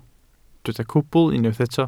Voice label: "cwbl", welsh